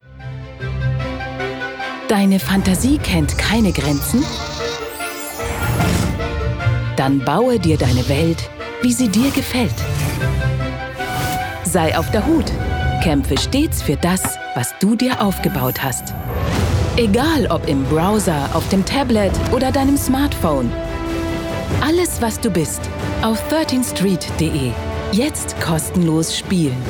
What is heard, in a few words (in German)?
OFF, frauen; 13th Street